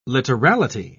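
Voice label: "literality", British English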